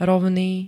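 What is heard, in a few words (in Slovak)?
"rovný", Slovak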